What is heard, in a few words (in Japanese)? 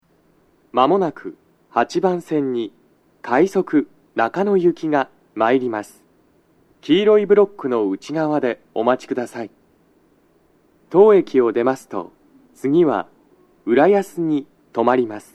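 接近放送 男声, 快速 中野行き; 収録者:こたけ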